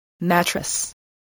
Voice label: "mattress", spelling voice